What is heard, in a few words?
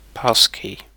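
"passkey", British English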